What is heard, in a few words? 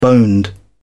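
"boned", British English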